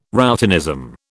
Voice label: "routinism", British